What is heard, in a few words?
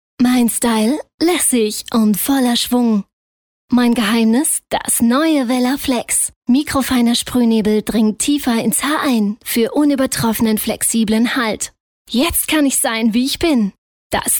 german female